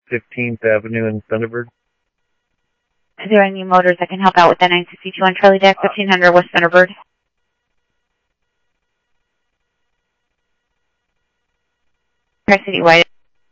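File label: police department, scanner audio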